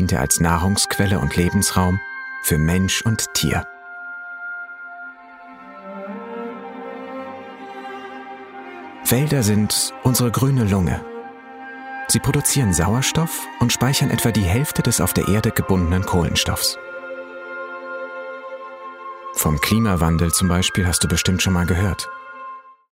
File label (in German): DE Doku Wald